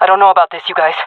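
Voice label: scared, female; vo; agents; Source Model Packs; Counter Strike Model Pack; CS2; jungle fem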